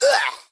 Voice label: "uh ah" YUCK; ooh ahh